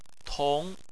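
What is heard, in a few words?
"tong", Chinese, second tone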